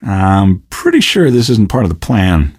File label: question, male; Garry's Mod; hl2